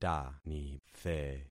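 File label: "tanithe", concatenated syllables; Affixing experiment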